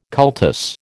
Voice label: "cultus", American

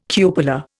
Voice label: "cupola", Indian

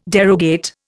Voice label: "derogate", Indian

